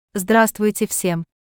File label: Russian Greetings everyone